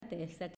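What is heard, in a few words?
"Testa", Italian, female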